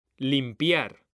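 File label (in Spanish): "limpiar", Spanish, male; mekanta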